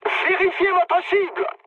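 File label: player friendly fire, mood high, French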